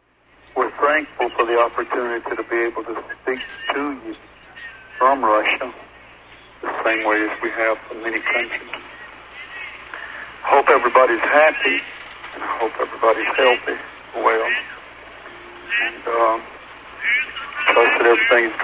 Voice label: telephone call, kiev ukraine